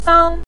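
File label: "sang", Mandarin Chinese, neutral tone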